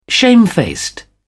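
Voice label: "shamefaced", British English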